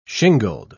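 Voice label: "shingled", British English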